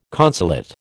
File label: "consulate", American